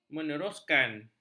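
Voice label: "meneruskan", Malay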